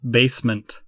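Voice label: "basement", US English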